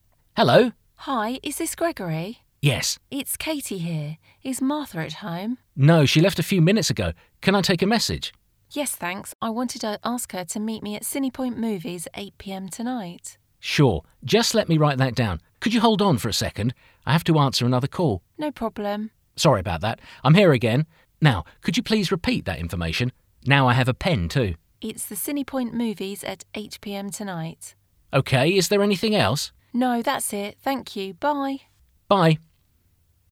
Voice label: telephone